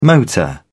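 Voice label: "motor", British English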